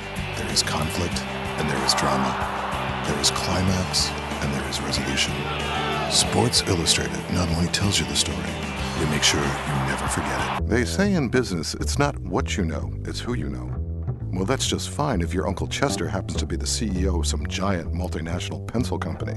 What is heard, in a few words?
american